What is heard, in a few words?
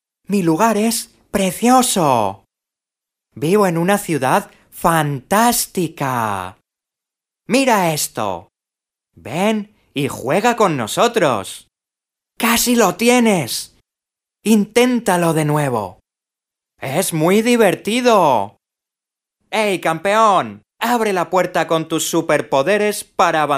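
Spanish male children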